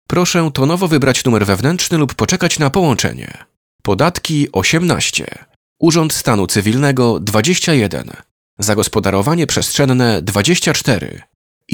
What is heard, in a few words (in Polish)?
zapowiedz